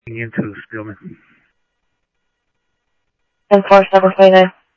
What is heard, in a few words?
scanner audio